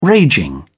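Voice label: "raging", British English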